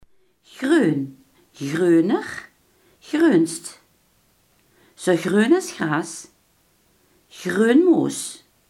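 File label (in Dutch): groen, Limburgse gesproken woordenboeken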